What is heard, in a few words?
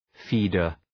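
"feeder", English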